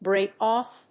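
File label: "brake off", English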